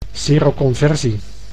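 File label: "seroconversie", Dutch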